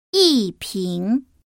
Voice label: "yiping", Chinese; 중국어 경성 연습